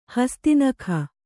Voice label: "ಹಸ್ತಿನಖ", kannada